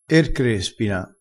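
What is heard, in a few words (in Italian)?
"crespina", dialettale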